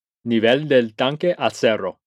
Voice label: tank level at zero Spanish